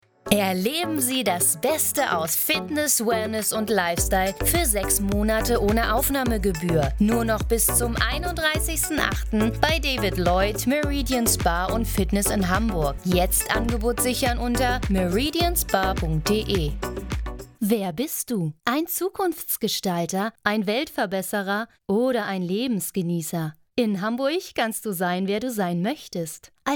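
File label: German, female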